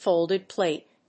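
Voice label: "FOLDED-PLATE", English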